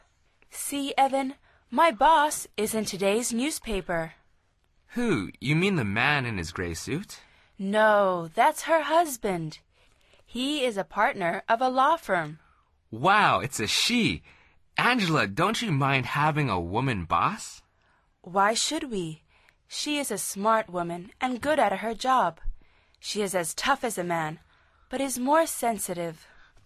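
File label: dialog, Conversación en Ingles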